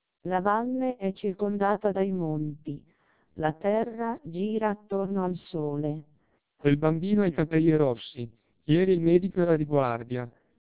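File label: Italian speech, TWELP 700 bps vocoder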